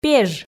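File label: "пеж", Komi, female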